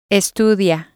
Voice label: "estudia", spanish